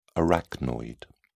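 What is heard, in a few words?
"arachnoid", British English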